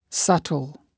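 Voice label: "subtle", English word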